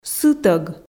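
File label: "сытӧг", Komi, female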